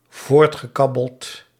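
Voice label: "voortgekabbeld", Dutch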